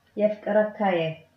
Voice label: i love you, tigrinya